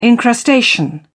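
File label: "encrustation", British English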